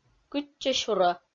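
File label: "кытчӧсюрӧ", Komi, female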